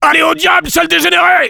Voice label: sniper taunts, fr